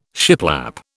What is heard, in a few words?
"shiplap", British